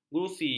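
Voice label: "gusi", Malay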